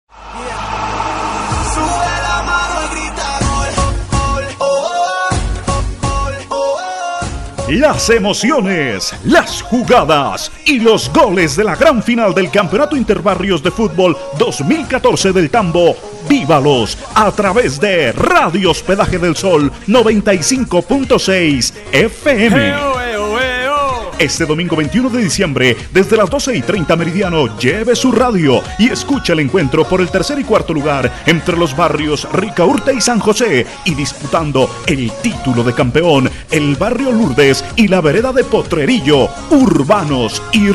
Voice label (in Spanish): promo futbol